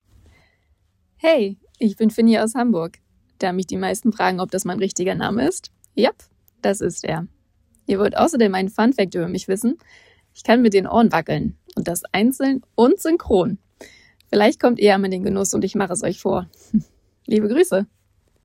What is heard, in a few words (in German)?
Sprachprobe Freie Traurednerin aus Hamburg; freie trauung hamburg